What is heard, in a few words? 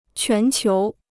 "全球", Chinese